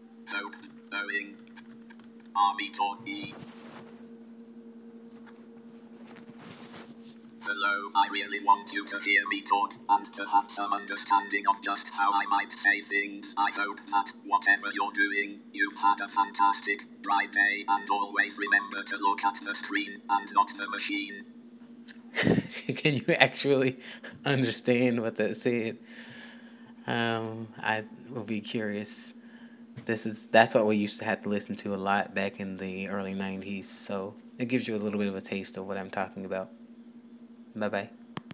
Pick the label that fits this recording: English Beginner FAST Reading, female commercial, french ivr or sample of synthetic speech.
sample of synthetic speech